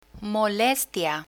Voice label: "molestia" Spanish; learn spanish